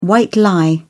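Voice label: "white lie", British English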